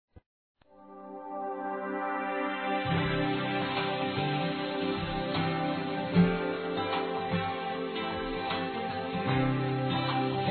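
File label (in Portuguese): agradecimento pela amizade, masculino; Telemensagens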